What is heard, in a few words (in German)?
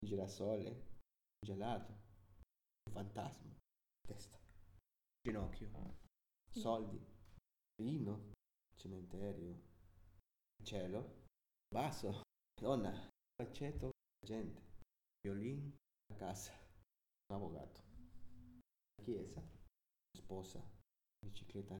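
Zusammengefugte Worter Schnell Italienisch, male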